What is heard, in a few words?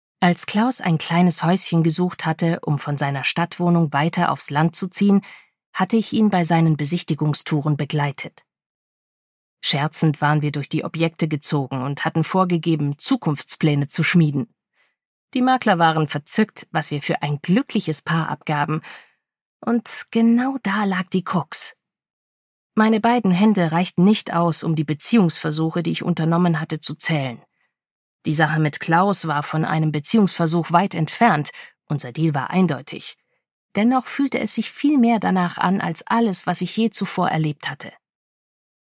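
German Audiobook female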